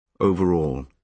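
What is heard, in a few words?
"overall" English, British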